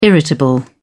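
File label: "irritable", British English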